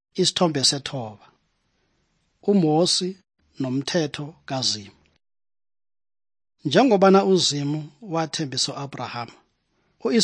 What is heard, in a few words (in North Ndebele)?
good news ndebele southern, drama; bible; for zimbabwe